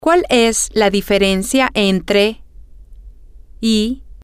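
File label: Spanish, female; what Is The Difference Between X And Y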